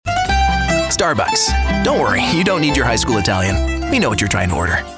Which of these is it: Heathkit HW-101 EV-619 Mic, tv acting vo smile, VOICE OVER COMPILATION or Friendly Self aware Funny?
Friendly Self aware Funny